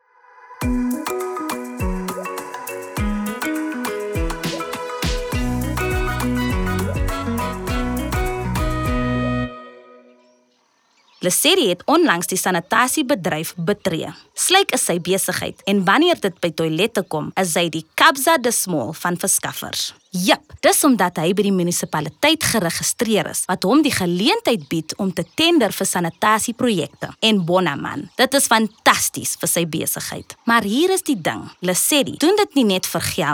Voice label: Service Providers Disposal Afrikaans; Sanitation; Disposal Afrikaans Soundbites